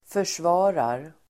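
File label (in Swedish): "försvarar", swedish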